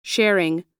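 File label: "sharing", American English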